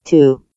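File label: "two", English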